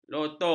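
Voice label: "lotto", Malay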